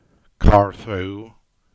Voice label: "Carthew", Cornish; place names